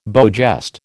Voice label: "beau geste", American

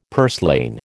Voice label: "purslane", American

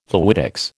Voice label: "fluidics", American

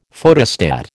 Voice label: "Photostat", American

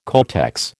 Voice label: "codex", American

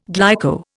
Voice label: "glyco", Indian

